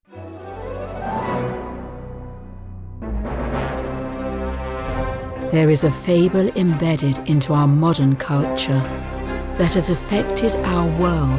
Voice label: english british movie trailers